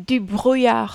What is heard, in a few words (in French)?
"dubrouillard", French